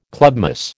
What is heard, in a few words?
"clubmoss", American